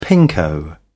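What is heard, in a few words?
"pinko", British English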